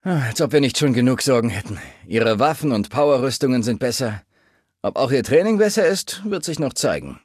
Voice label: Male adult default dialogue